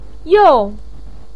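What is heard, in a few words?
"you4", Mandarin Chinese, pinyin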